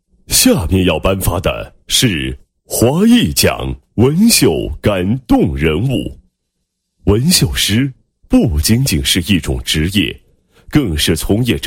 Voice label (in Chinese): 男-颁奖-开场词; 华艺奖开场词